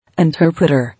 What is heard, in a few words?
"Interpretor", English